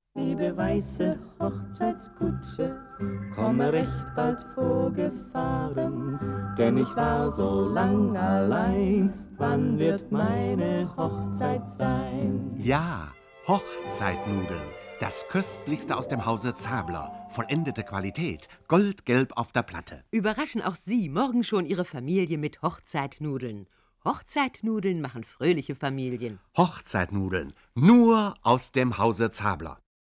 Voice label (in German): Radio Spot historisch; HochzeitNudeln